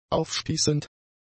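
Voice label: "aufspießend", German; adjectives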